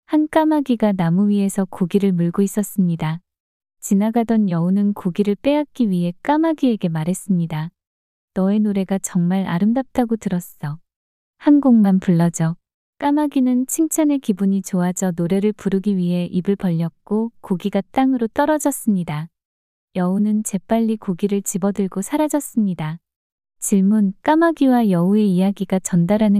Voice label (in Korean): 까마귀와 노래 이야기 여자